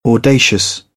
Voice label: "audacious", British; AmericanBritish

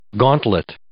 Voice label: "gauntlet", English

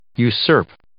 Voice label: "usurp", English

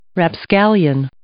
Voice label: "rapscallion", English

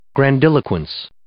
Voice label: "grandiloquence", English

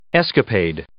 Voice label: "escapade", English

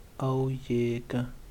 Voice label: "perezoso", Yaqui

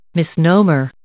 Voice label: "misnomer", English

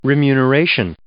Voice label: "remuneration", English

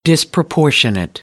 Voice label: "disproportionate", American; AmericanBritish

